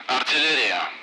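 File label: Speech Sound Russian Common Allied Flight; target-artillery; DCS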